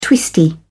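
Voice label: "twisty", English, British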